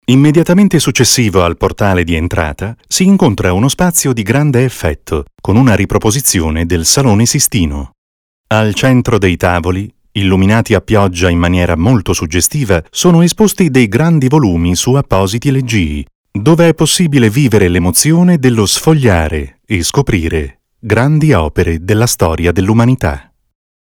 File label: voxpop, documentary